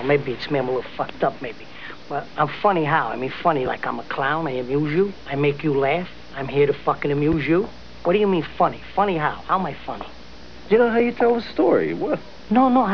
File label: funny